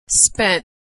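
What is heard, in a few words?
"spent", American English; verb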